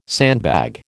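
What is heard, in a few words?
"sandbag", American English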